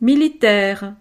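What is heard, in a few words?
"militaire", French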